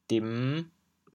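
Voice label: "dim5", Teochew, chaoyang; 沈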